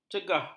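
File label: "cegah", Malay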